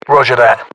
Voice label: roger, radyo; xect escape